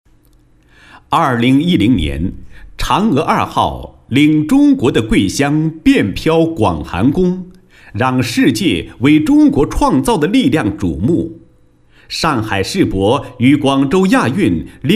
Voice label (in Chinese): 专题, 国语男声配音; 高音经济型; 北京航天总医院